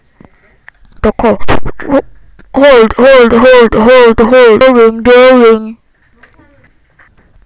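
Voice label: "hold", spoken word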